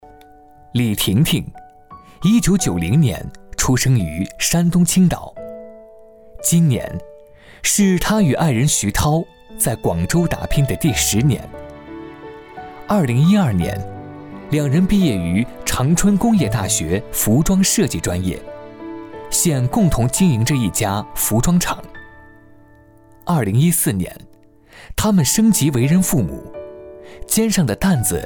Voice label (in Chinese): 男 人物专题 创业